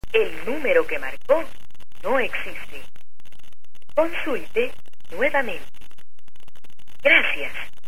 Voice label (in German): Kein Anschluss, elsalvador